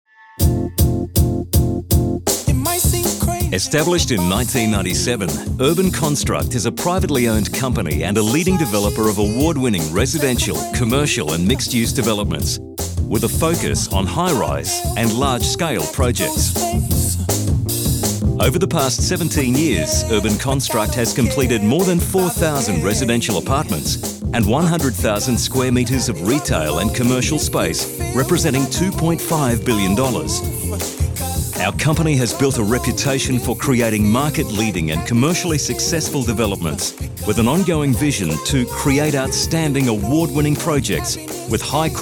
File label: english australian corporate videos